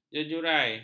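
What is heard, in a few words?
"jejurai", Malay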